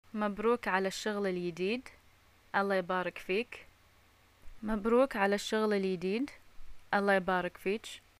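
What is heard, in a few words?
Bahraini greeting job, arabic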